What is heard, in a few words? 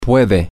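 she is able to can, Spanish, male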